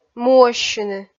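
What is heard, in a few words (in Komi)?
"муӧссьыны", Komi, female; kpv audio dict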